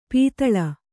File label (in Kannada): "ಪಾತಾಳಿ", Kannada